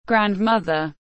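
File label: "Grand mother", English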